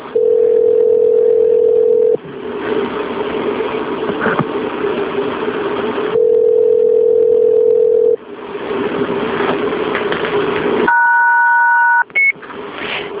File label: Mock Dispatch Allergic Reaction